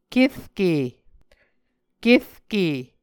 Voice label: "kerke", Muscogee